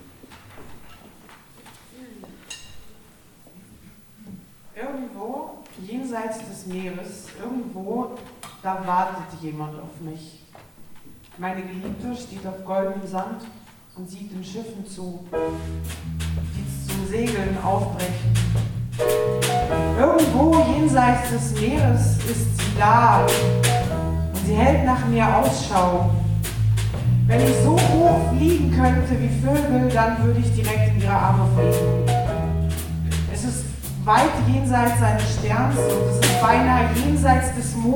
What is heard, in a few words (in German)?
Ansage; jazz and lyrics